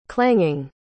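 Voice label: "clanging", English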